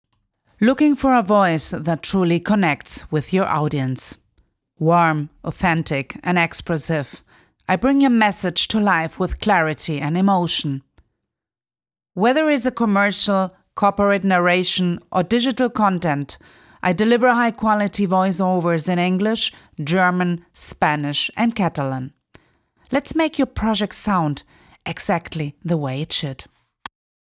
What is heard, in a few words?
mrs deep voice english vo